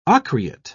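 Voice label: "ocreate", English, British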